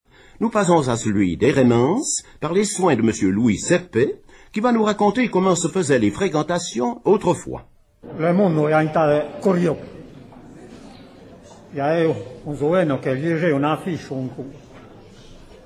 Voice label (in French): patois d'Heremence; les frequentations autrefois; patois valaisans